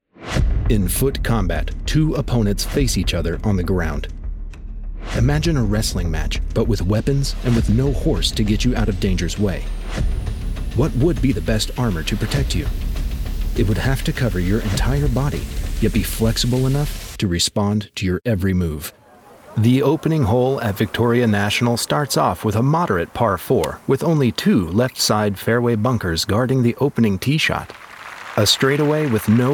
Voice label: Narration